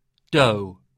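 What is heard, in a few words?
"dough", English; mots en ough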